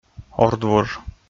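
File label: "ордвуж", Komi, male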